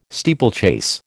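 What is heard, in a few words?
"steeplechase", American English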